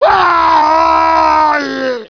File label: pain crticial death; tf2; demoman